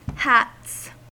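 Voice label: "hats", US English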